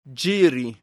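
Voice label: "ĝiri", esperanto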